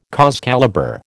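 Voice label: "cause célèbre", American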